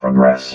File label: "progress", robot